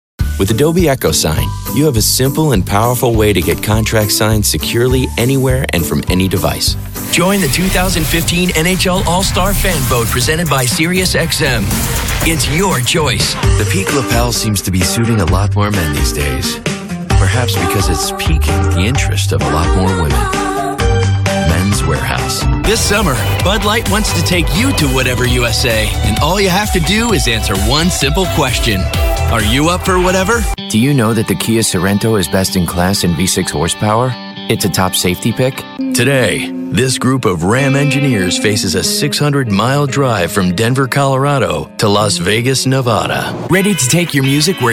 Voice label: Voice Talent Commercial